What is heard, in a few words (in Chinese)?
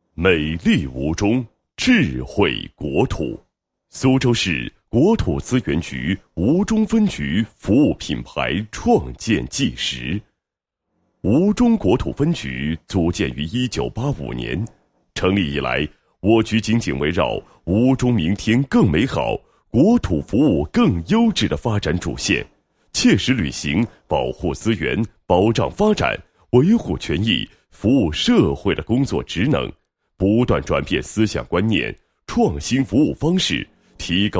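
男 纪实 国土资源局服务品牌创建纪实; 国土资源局吴中分局服务品牌创建纪实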